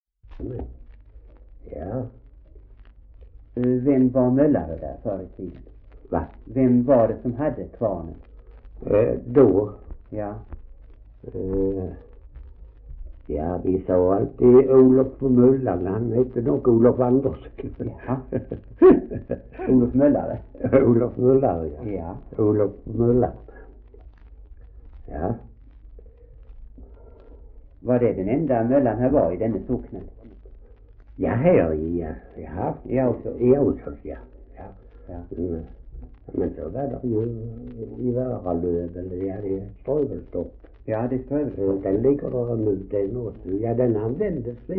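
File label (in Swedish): Ausås Skåne, dialekter svenska sagor; Folkminnen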